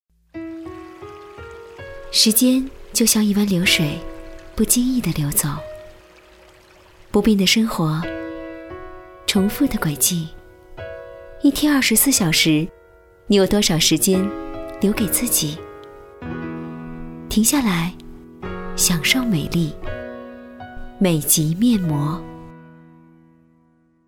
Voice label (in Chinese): 女 电视广告 清新甜美 面膜, 国语女声配音; 美即面膜